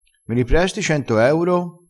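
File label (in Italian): "se o", dialettale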